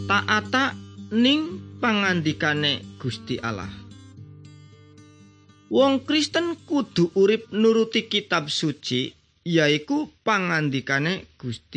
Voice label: good news jawa indramayu, javanese bible